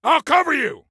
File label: Self Task Cover, Soldier Male; Soldier Male 03